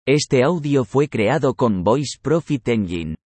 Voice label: Spanish Male